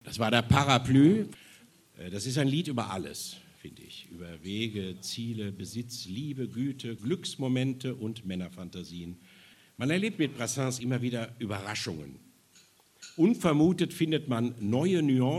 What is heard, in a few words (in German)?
ansage